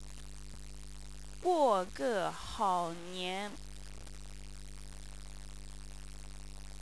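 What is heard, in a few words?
"guogehaonian", Chinese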